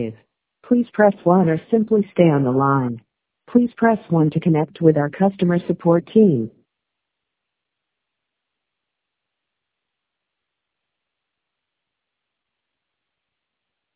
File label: amazon account scam robocall